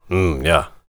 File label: warrior conversation emotion agree